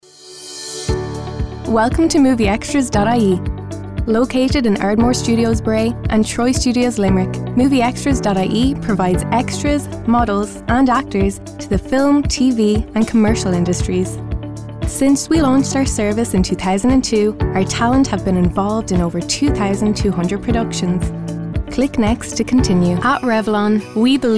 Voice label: narration

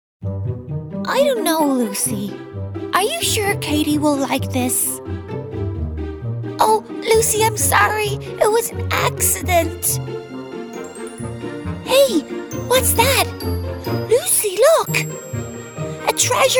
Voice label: ANIMATION

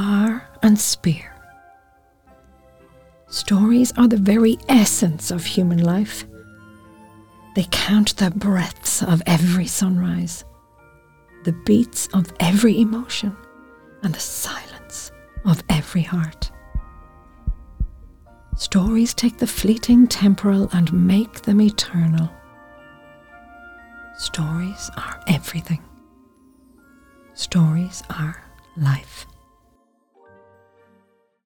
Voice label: Narration Story, actor female

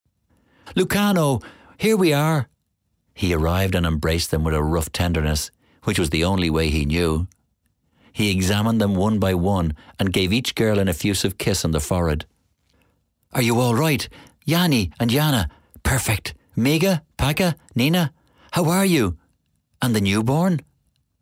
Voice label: narration

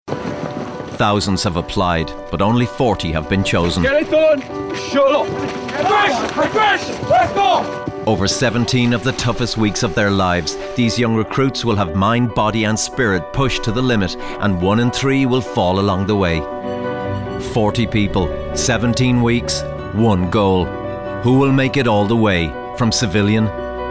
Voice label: Narration showreel

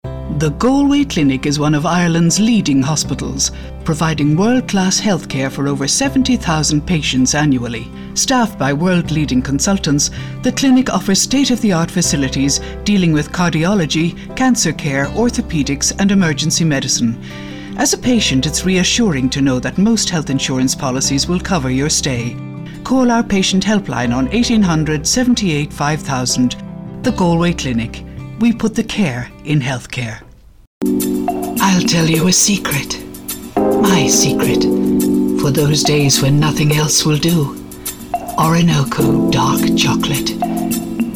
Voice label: commercial

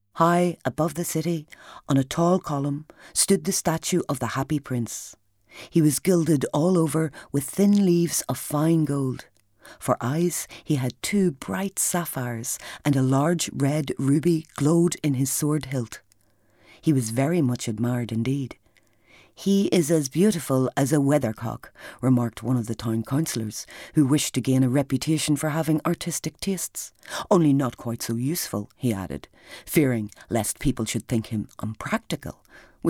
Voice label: narration

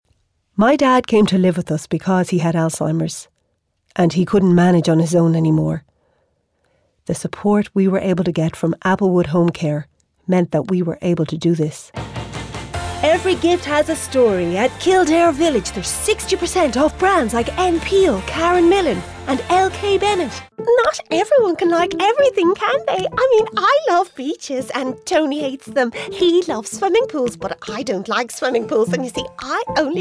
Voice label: commercial demo

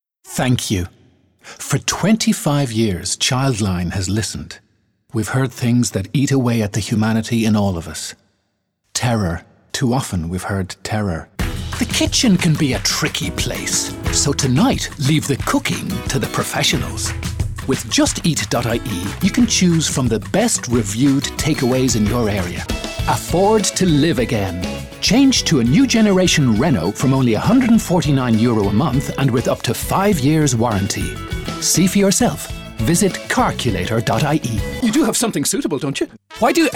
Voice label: commercial

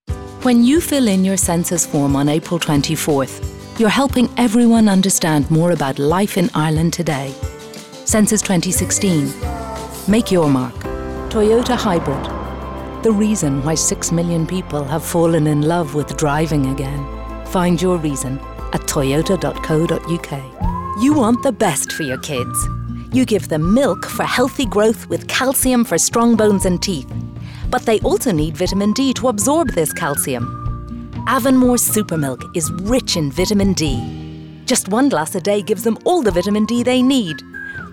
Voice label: Commercial

